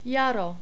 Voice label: "jaro", czech